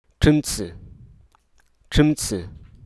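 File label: Hruso Aka; cockroach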